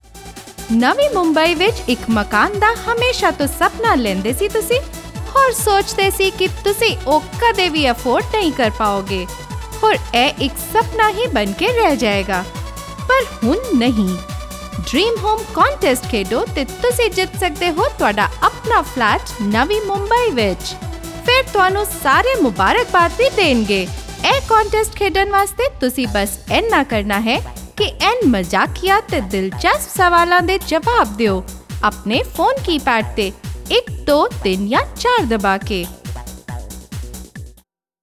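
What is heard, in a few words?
Punjabi female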